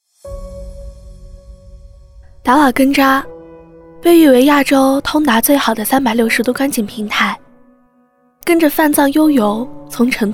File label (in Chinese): 女 宣传